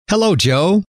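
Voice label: hello, male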